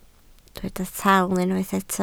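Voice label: "taal" Welsh, spoken word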